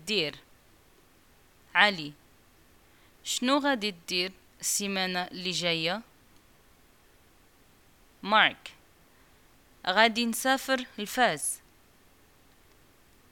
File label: dialogue, Arabic, North African, Moroccan